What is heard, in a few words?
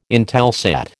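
"Intelsat", American English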